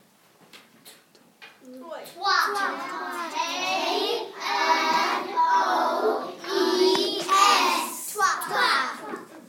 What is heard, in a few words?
practising how to spell French numbers